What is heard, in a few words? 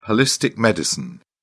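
"holistic medicine", British English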